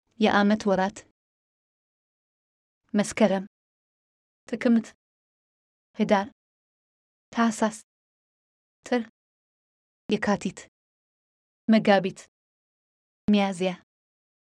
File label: amharic months